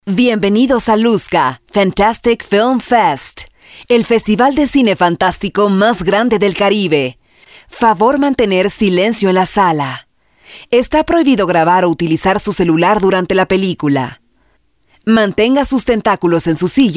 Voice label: Spanish